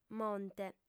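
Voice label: "monte", female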